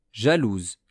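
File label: "jaloux", French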